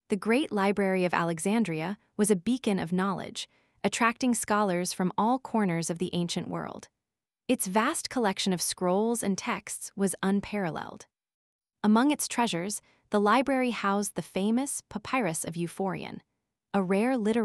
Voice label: voiceover en